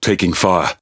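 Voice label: Pirate Speech Pilots Fighter Under Attack, English pirate; World Of Warships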